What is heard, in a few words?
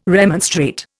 "remonstrate", Indian